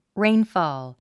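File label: "rainfall", English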